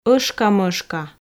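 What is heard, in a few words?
"ӧшкамӧшка", Komi, female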